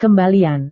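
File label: "kembalian", Indonesian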